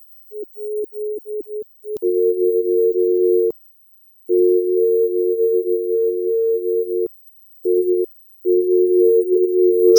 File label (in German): ein softes uh oh